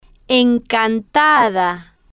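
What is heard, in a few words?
"encantada", Spanish, female, slow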